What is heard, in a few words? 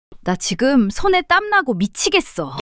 fluster; monologue